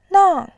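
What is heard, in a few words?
"nang", Mandarin, tone 4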